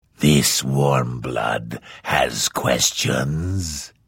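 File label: Hello, Vo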